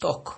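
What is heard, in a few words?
"talk", Nigerian Pidgin, male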